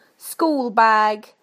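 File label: "schoolbag", British English; PictureDictionary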